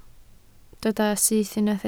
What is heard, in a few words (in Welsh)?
"syth", Welsh